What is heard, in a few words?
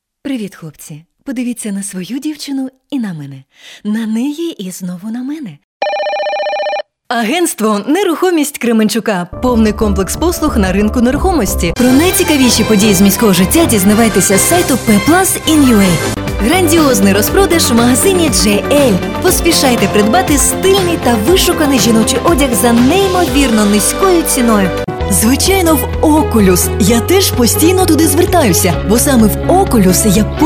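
Ukrainian female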